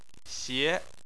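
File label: "xie", Chinese, second tone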